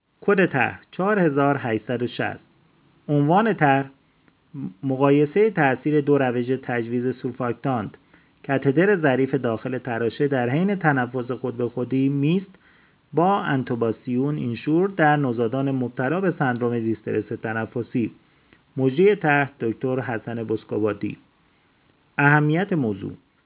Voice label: voice recorder; tarjoman